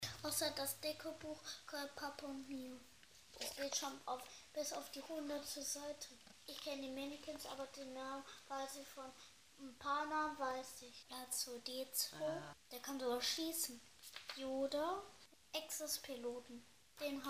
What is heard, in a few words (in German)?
6 Jahre, Tonbeispiel, Sprache